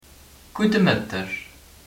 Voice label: good afternoon, luxembourgish

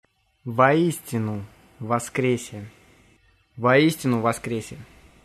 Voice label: happy easter, russian

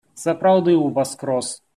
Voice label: easter, belarusian

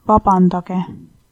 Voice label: excuse me, estonian

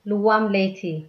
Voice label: good night, tigrinya

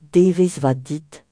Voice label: have a nice day, Breton; Devezh vat dit

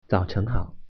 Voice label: "good morning", Mandarin

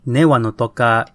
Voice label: my name is nahuatl

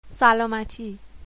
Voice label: cheers, persian

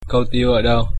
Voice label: toilet, vietnamese

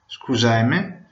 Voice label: sorry, Venetian

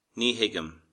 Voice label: no understand, Irish

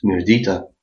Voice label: good afternoon, albanian gheg

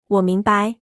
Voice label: understand, mandarin

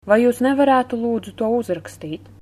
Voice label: write, Latvian

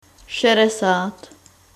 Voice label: "sixty", czech

